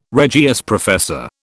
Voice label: "Regius professor", British